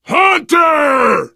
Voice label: warn hunter, biker, survivor voice; Left 4 Dead 2

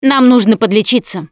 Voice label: suggest health, russian; Producer

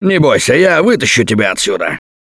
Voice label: Ledge Save, russian, Survivor Voice; Gambler

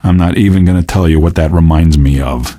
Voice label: question, male; Garry's Mod; hl2

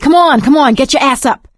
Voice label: revive friend loud, survivor voice

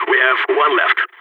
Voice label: one enemy left, balkan; Counter-Strike Global Offensive

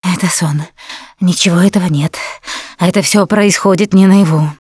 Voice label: going to die, russian; Producer

